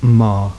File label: long m, Phonetique